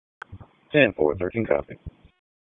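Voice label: Police Northeast District Dispatch, Raleigh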